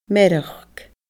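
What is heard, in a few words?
"mearachd", Scottish Gaelic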